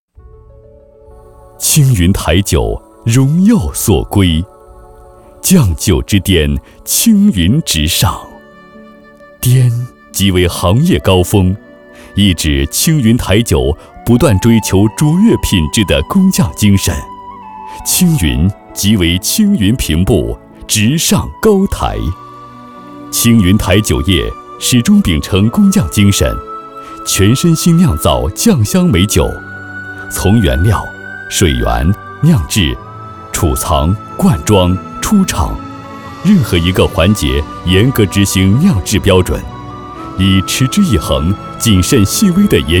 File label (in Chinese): 底蕴棉长酒类广告